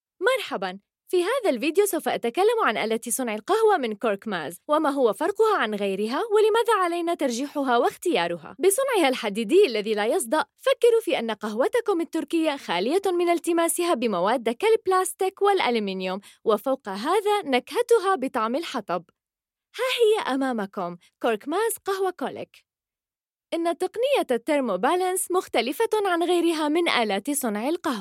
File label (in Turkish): Arapca Kadin Klasik Arapca Suriyeli, seslendirme; kadin sesler